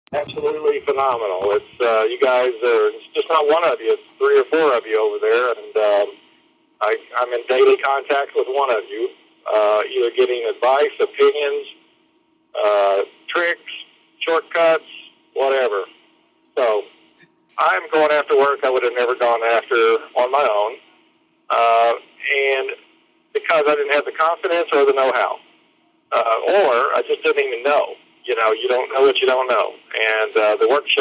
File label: testimonial